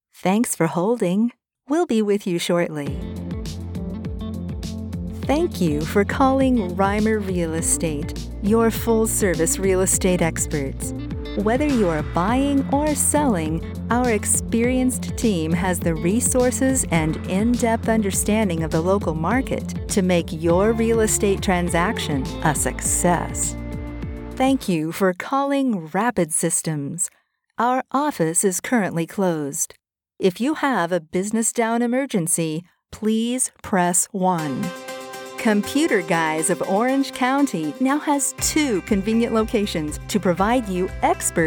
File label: IVR and Voice Messaging